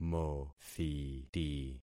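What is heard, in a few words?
"mothiti", concatenated syllables; Affixing experiment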